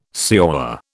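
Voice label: "señor", British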